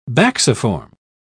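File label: "bacciform", British English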